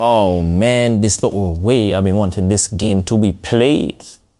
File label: happy, speech; fertig classic games